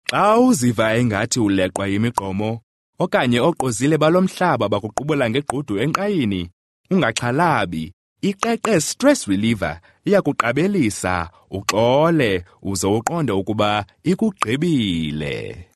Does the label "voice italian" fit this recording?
No